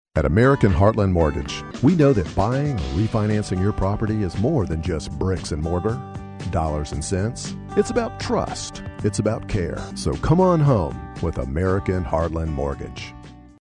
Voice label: American Mortgage Read